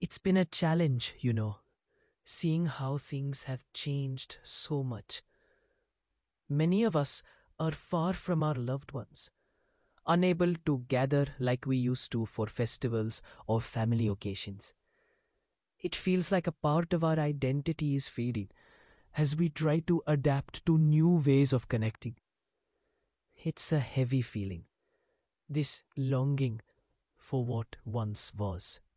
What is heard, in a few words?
indian accent